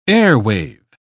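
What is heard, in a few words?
"airwave", British English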